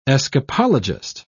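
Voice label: "escapologist", British English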